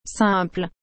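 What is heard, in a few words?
"simple", French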